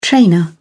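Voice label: "trainer", British English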